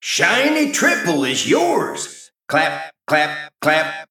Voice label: Male Mech After Triple